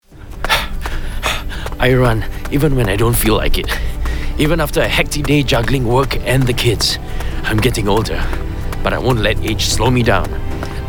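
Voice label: male VO ENGLISH Character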